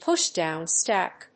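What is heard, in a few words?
"push-down stack", English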